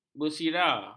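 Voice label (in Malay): "bersira", Malay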